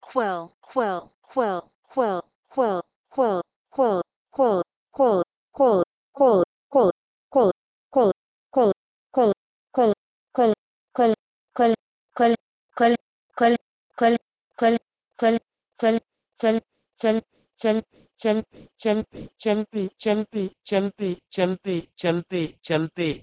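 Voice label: Proto-Indo-European kwel to Hindi chalte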